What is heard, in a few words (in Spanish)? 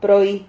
"prohi", Silabas